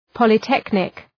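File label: "polytechnic", English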